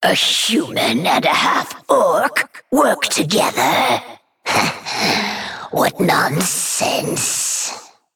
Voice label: Female Naga; Story Hero Darkscale; Story Rexxar Mission5 Idle

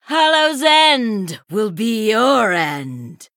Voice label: Female San'layn Emote HAPPY HALLOWEEN

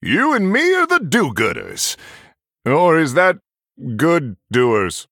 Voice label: Male Human Bark; Reno Jackson

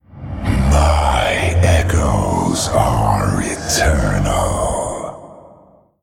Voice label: male shade, Play

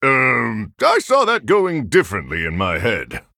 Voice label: Human Emote Oops; Reno Jackson

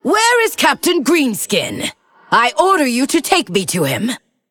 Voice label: Female Undead Intro; Mission 2 Intro

